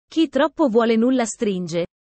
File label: italian sayings about life